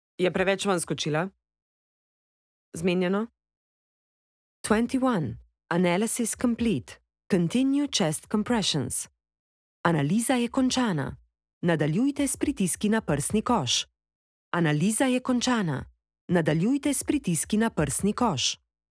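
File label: slovenian female e-learning; voice demos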